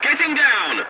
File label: voice message landing, english; War Thunder